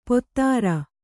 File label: "ಪೊದರ್", Kannada